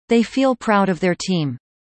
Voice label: fast; assessment